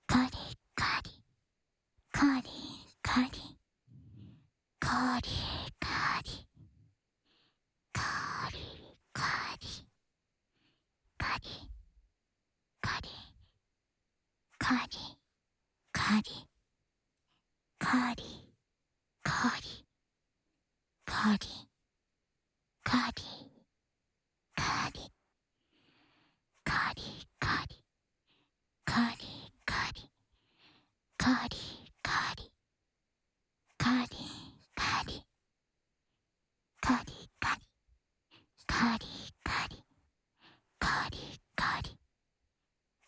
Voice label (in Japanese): 乳首責め（かりかり・左）（SEあり）; 乳头刺激